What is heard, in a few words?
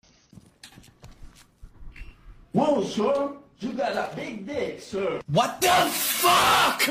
rage; jynxzi